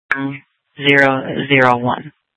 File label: Sheriff, scanner P25; Shrf Lakes